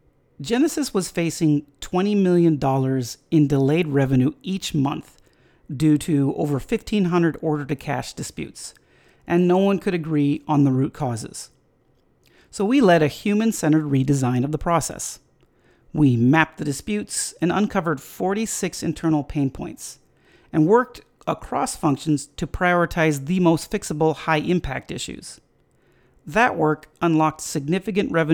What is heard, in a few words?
voicetrack